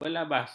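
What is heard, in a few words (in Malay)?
"belebas", Malay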